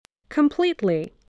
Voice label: "completely", english